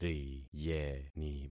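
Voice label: "riyeni", concatenated syllables; Affixing experiment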